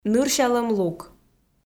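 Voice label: "нырсялӧм лук", Komi, female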